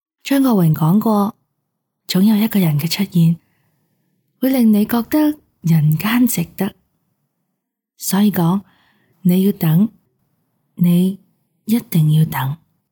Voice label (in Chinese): 独白港风; 王家卫; 一定要等; 粤语